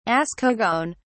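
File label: "ascogone", British English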